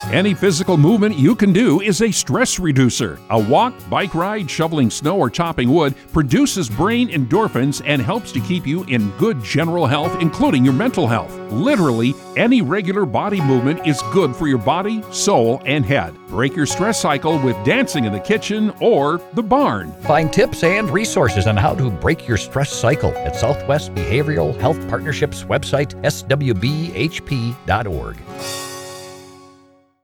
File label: Male